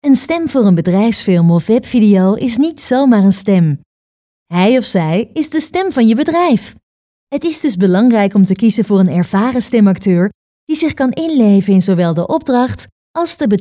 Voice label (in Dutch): BEDRIJFSFILM